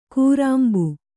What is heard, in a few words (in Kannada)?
"ಕೂರಾಂಬು", Kannada